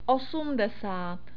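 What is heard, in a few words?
"osmdesát", Czech; numbers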